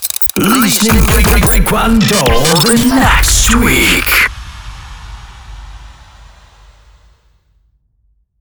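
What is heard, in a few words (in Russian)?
DJ ДЖИНГЛ, Диктор; Брэйк Вон До - Listening Break-Won-Do on the next week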